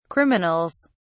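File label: "criminals", British English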